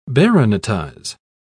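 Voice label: "baronetize", British English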